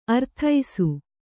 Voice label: "ಅರದೇಸಿ", kannada

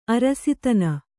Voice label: "ಅರಸುತನ", Kannada

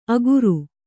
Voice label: "ಅಗುರು", kannada

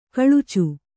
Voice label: "ಕೊಳಚೆ", Kannada

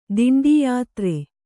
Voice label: "ದಿಂಡೀಯಾತ್ರೆ", Kannada

